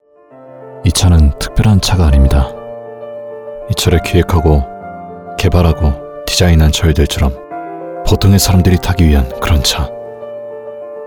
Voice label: 광고 자동차 전문적 담담함

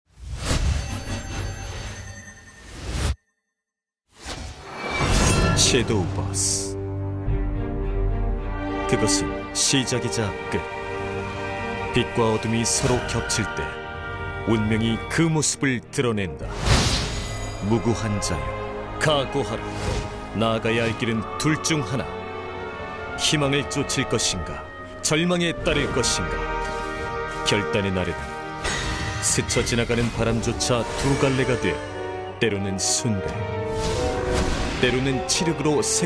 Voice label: 광고; 섀도우버스

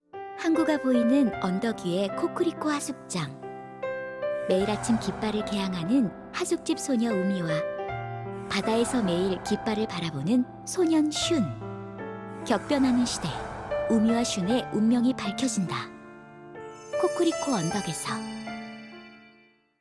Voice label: 하이톤 차분한 따뜻한 느낌; 스튜디오 지브리; 코쿠리코 언덕에서

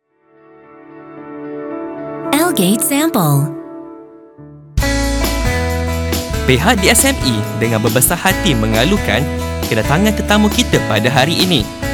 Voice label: 말레이 남 밝은 톤

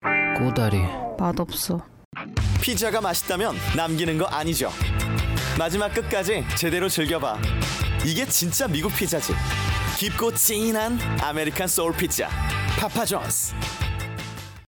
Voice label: 광고 힙한 통통튀는; 파파존스

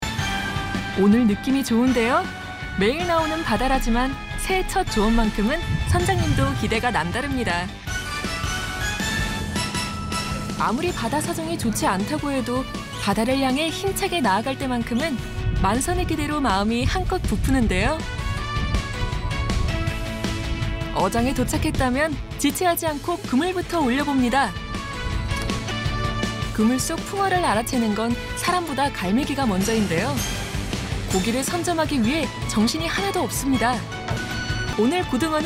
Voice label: TV 내레이션 밝고 경쾌한 가볍게

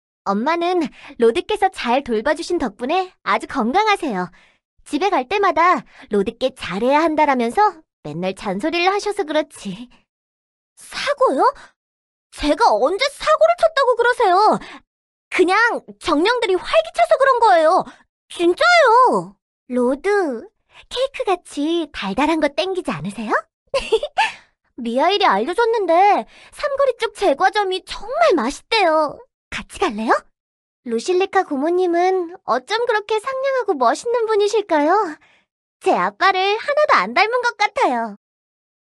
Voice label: 다정하고 상냥한 10대; 로드 오브 히어로즈; 샬롯

